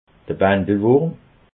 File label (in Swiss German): "bandelwurm", alsace